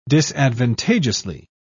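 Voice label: "disadvantageously", British English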